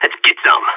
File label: peptalk; Source Model Packs; Counter Strike Model Pack; CS2; seal